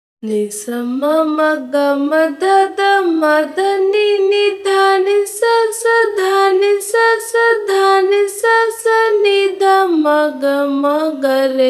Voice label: timestretch voice